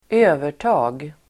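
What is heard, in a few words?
"övertag", Swedish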